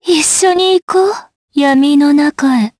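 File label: Vox Skill, Japanese; DarkFrey; kingsraid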